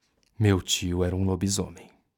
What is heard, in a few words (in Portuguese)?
NARRACAO; meu tio lobisomem